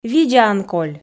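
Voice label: видзаӧн коль, Komi, female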